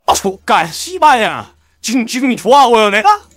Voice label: scout battle cry